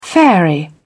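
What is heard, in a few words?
"fairy", British English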